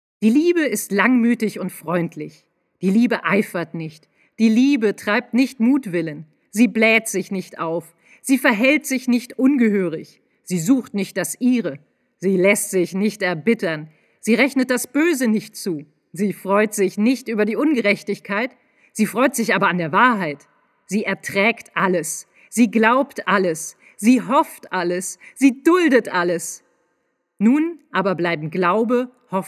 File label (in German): Sprecherin Jung Dynamisch